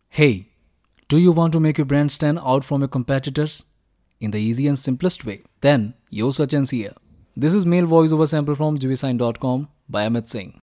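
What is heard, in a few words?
Real English Male Voiceover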